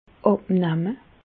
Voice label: "abnamme", Bas Rhin; medecine; perdre du poids

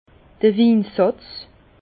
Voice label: "winsatz", Bas Rhin; vin; tartre

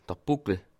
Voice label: "buckel", Alsace

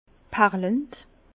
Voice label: "perlend", Bas Rhin; vin; pétillant

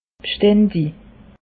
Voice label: "bstandig", alsace; meteo

